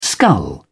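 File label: "skull", British English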